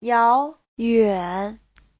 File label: "yao2 yuan3", Chinese; Giáo trình Hán ngữ